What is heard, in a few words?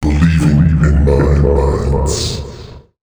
male, voice